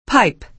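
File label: "pipe", American English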